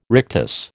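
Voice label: "rictus", American English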